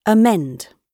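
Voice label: "amend", British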